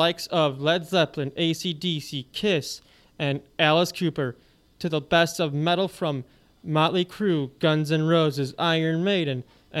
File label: Morning Promo; Red Raver